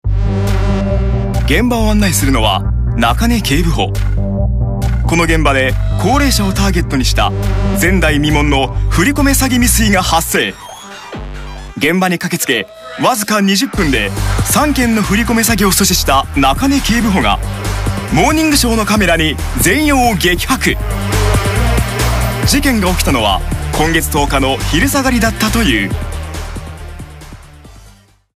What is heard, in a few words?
Male 20 30 years Medium, japanese japan